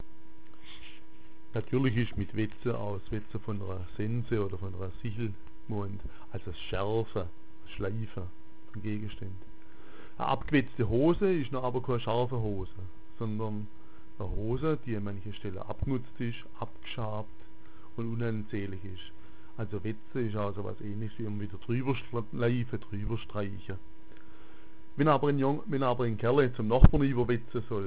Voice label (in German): "wetza", schwaebisch